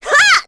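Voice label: Vox Attack, Korean; Chrisha; kingsraid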